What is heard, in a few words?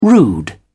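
"rude", English, British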